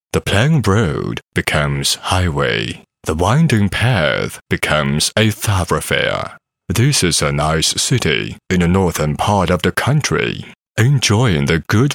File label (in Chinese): 英男 影视 中英